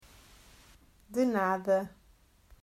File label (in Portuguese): "De Nada", Portuguese